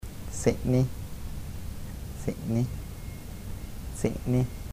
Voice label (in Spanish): "beber", kotiria